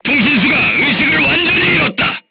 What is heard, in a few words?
voice message machine gunner contused, korean, crew tanks